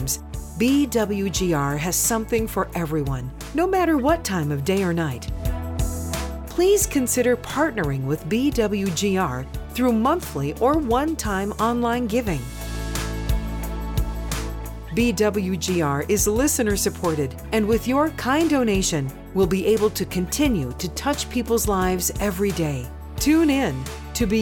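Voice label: Religious Christian Radio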